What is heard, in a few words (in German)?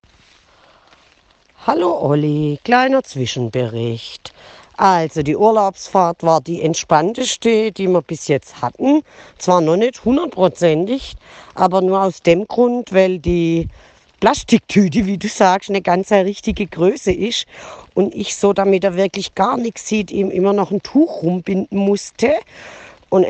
Kundenstimmen